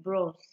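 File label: "bros", Nigerian Pidgin, female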